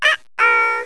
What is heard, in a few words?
uh oh